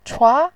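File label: "chua", Mandarin Chinese, second tone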